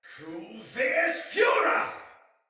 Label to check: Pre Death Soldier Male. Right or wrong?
Right